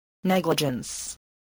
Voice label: "negligence", voice